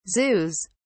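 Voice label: "zoos", British English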